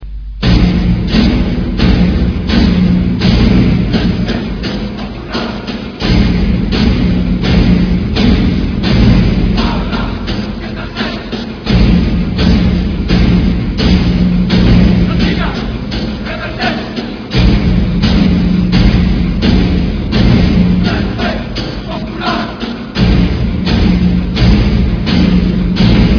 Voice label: metalo voice